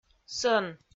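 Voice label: "сӧн", Komi, female; audio dict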